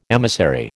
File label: "emissary", American